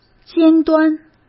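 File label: "尖端", Mandarin Chinese; hsk; chinese-flashcards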